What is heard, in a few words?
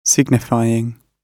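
"signifying", British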